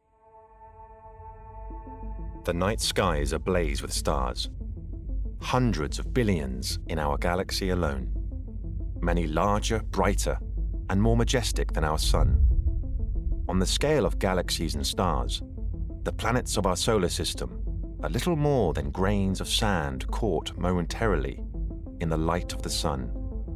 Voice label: Documentary; Stories of the Planets